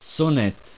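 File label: "sonnette", French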